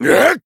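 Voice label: Voice attack, Japanese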